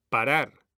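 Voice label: "parar", Spanish; mekanta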